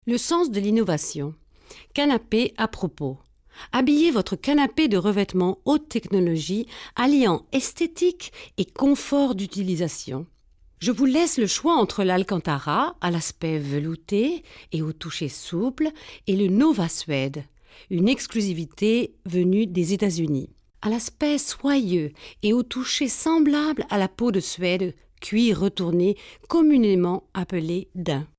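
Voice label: französisch, French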